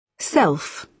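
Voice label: "self", British English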